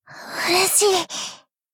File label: shock